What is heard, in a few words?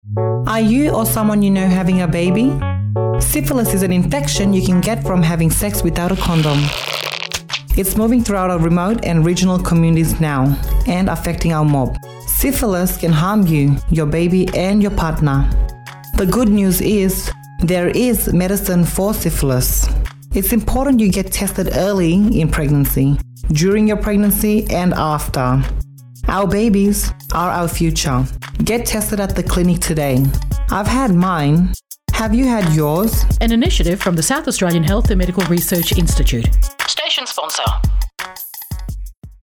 Advert